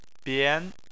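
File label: "bian" Chinese, second tone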